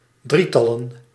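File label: "drietallen", Dutch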